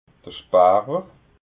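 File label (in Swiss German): "sparwer", Alsatian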